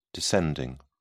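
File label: "descending", British English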